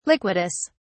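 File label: "liquidus", British English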